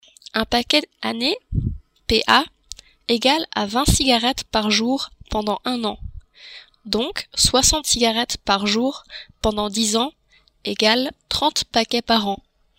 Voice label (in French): paquet annee, french